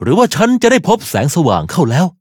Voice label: level up, th; cookie run

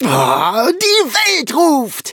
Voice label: loading, de; cookierun

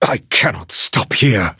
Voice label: defeat, en; Cookie Run

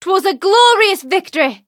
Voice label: victory, en; Cookie Run

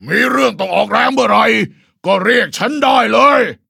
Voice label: level up, th; Cookie Run

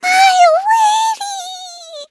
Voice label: hit, en; cookie run

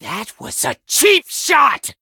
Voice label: defeat, en

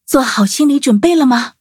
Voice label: battle, zh hant

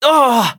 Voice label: hit, en; Cookie Run

